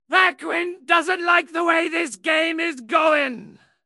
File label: upset; fertig classic games; blackwind